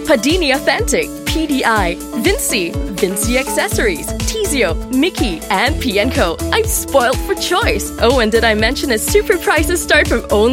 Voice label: PROMO; PADINI; 9 BRANDS